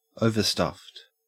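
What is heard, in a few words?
"overstuffed", Australian English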